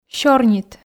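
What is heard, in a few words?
"сёрнит", Komi, female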